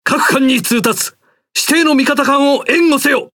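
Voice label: Speech Quick Commands Ally Ship, Japanese; World Of Warships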